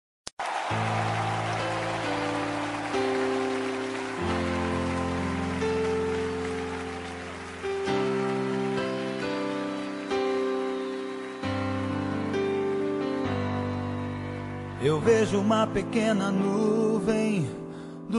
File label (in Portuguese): pastor fem, Voz Feminina; Aniversário de Pastor; Telemensagens para todas as ocasiões